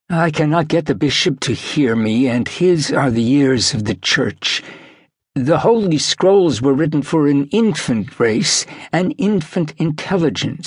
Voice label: Audiobooks; Intergalatic medicine show